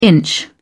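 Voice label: "inch", British English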